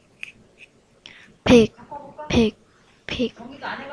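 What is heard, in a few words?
"pick", spoken word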